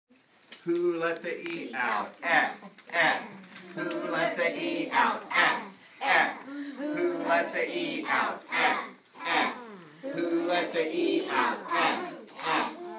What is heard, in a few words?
kinder vowels